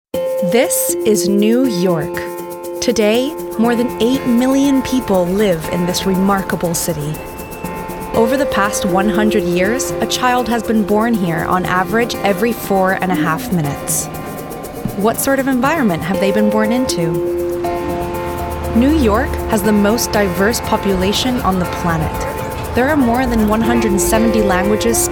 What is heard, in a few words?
Documentary